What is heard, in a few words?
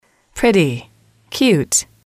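"pretty cute", English, female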